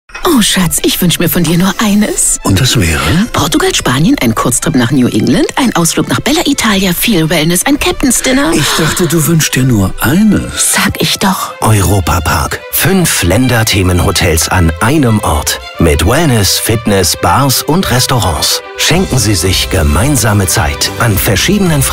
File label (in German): Funkspot, Stimme; Funkspot mit Richard Gere; Julia Roberts; Europa Park